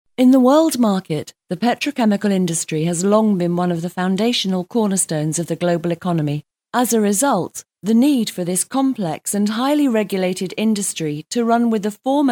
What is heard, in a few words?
Corporate narration